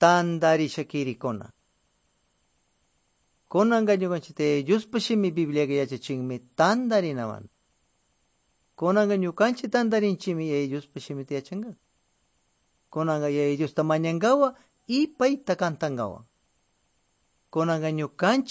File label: good news quichua de pastaza